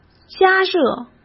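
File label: "加热", Mandarin Chinese; chinese-flashcards; hsk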